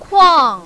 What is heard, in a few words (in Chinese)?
"kuang4", mandarin, female